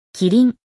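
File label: "jirafa", Spanish; Relaciona Palabras en Ingles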